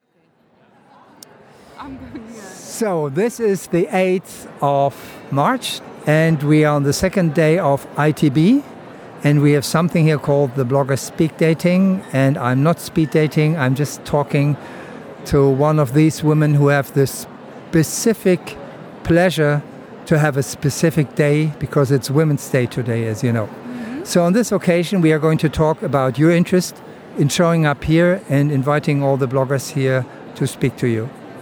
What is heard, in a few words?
female, rotterdam